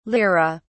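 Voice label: "lire", British English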